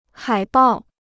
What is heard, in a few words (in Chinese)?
"海报", Chinese